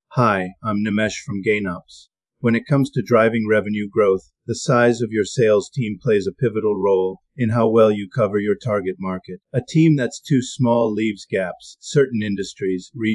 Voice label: narrative script